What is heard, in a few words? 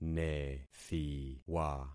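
"nethiwa", concatenated syllables; Affixing experiment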